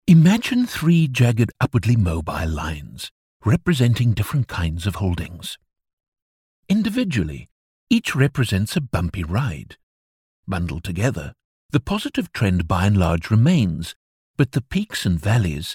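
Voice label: Afrikaans English male narration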